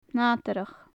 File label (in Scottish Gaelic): "nadarrach", Gaelic; Faclan Nàdair